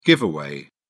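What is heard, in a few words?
"giveaway", English, British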